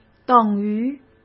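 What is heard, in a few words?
"等于", Mandarin Chinese; chinese flashcards; hsk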